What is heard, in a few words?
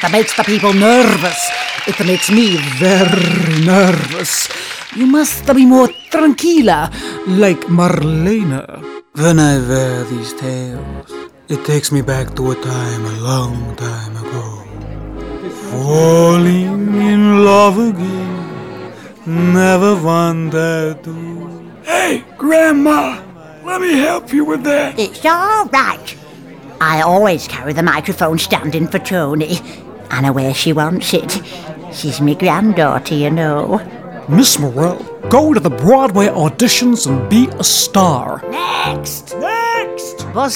Animation Voices